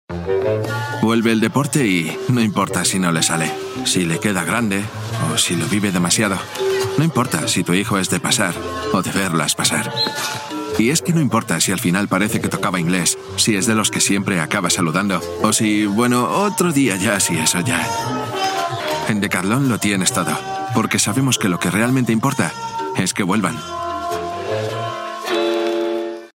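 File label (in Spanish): otros España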